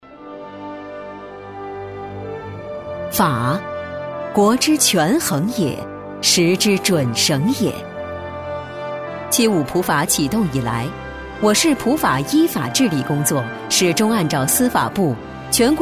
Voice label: 女 大气正式 普法工作汇报; 政府党政类

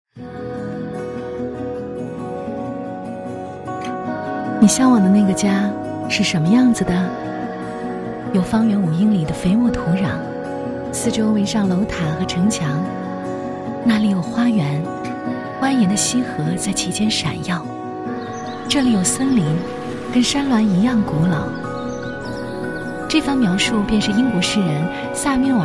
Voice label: 女 广告 阳光 温暖 自然

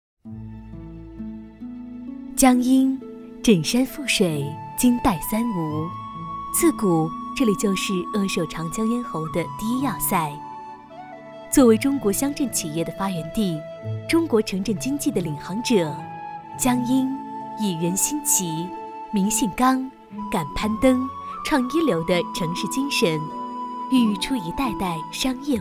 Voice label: 女 企业介绍

